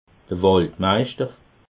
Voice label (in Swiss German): "waldmeischter", alsace